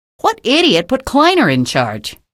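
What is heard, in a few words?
citizen remarks, female; vo; npc; source engine; episodic; episode 1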